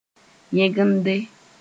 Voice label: "yẽgende", Mooré

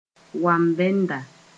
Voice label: "wam-bɛnda", Mooré

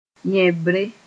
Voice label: "yẽbre", Mooré; vulva (vulgar)

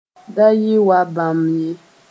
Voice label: "yɩ-y", Mooré

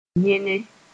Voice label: "yẽnẽ", moore

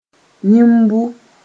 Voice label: "yĩmbu", Mooré

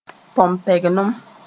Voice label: "tompeglem", Mooré

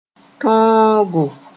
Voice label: "tõogo", Mooré

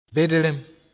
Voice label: "bedrem", Moore

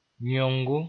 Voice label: "yẽogo", moore

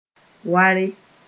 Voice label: "ware", Mooré

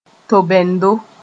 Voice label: "tobendo", moore

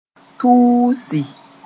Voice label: "tuusi", moore

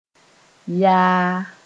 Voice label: "yaa", Mooré; indicates yawning

